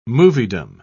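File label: "moviedom", British English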